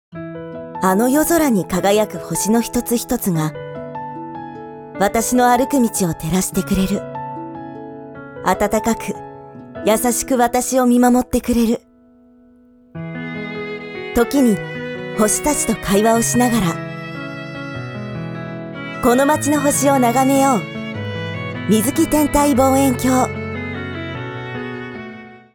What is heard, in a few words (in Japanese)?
ラジオCMナレーション; 美月天体望遠鏡